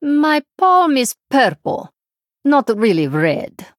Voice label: Female Draenei

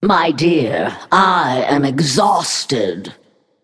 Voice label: Female Naga; ERROR I ATTACKED

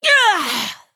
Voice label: Female Orc Death

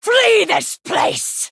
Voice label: Female Worgen Attack

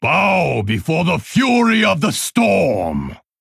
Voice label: Male Pandaren Play

